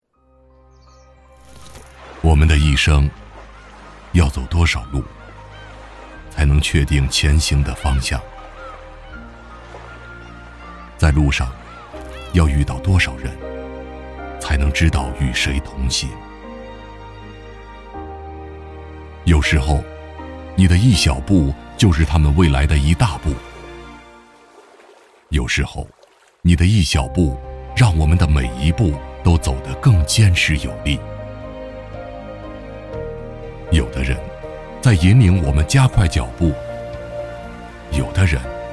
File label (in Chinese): 男; 中国医学论坛报